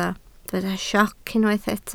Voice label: "sioc", Welsh